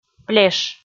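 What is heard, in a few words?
"плеш", Komi, female; kpv audio dict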